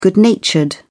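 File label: "good natured", British English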